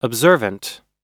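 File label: "observant", American English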